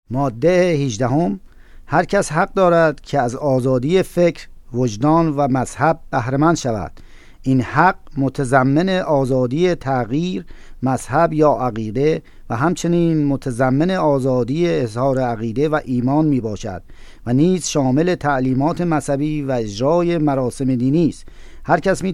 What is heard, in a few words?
Persian